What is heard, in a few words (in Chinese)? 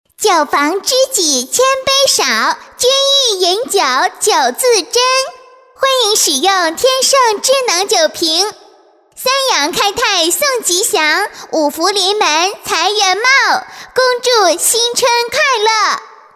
女国语, 广告; 天盛智能酒